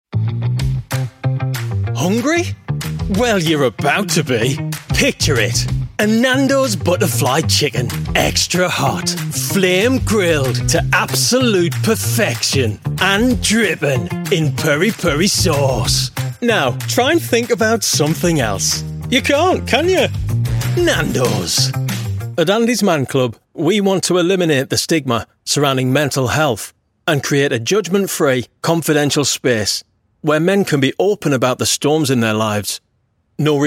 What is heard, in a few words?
commercial